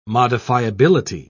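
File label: "modifiability", British English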